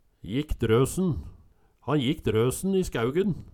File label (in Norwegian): jikk drøsen, Numedalsmål